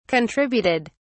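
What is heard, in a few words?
"contributed", British English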